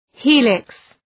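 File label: "helix", English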